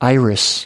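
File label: "iris", American English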